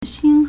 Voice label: "xun1", Chinese